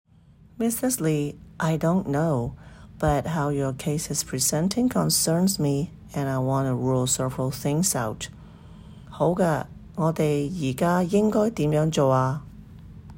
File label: Medical Certified Oral Cantonese Practice